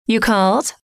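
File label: you Called, female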